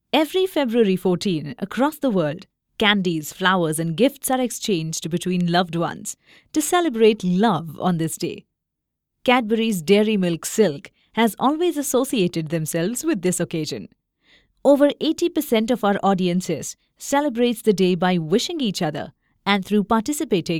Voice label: english indian corporate videos

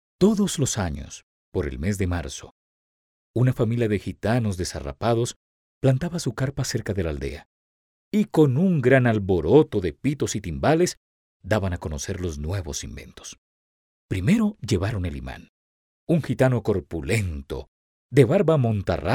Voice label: spanish latin american audiobooks

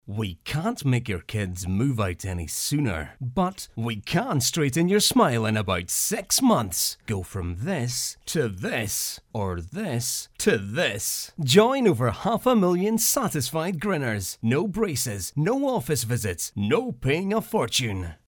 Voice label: english scottish tv shows

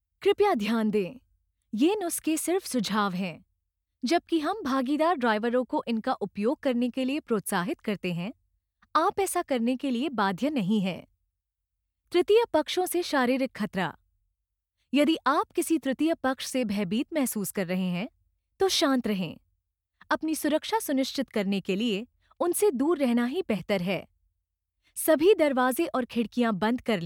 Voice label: hindi e-learning, voice actors; professionelle indische Sprecherin

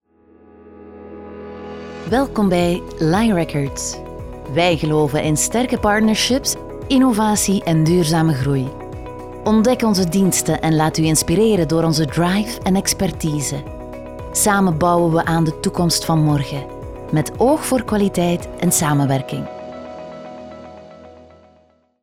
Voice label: flemish corporate videos; flemish voice actors

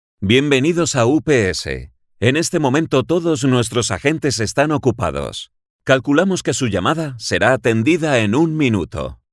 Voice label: spanish ivr; locutor y actor de doblaje en España

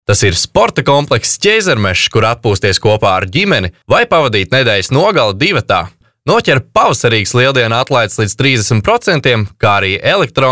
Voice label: latvian commercial

